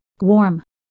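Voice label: "gwhorm", Proto-Indo-European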